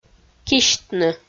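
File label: "кисьтны", Komi, female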